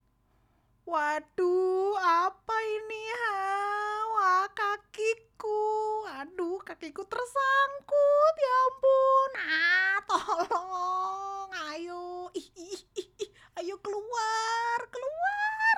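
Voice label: character INDO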